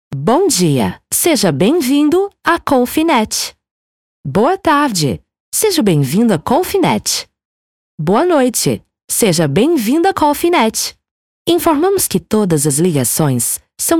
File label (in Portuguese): espera telefonica